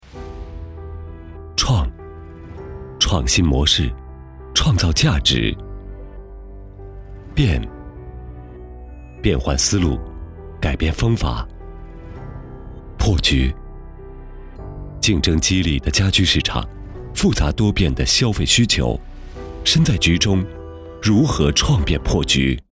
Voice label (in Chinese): B男, 宣传片, 科技产品; 区块链